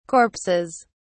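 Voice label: "corpses", British English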